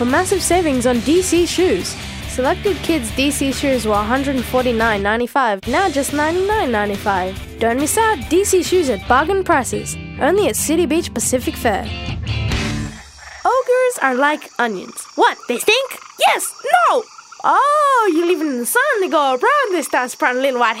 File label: voiceover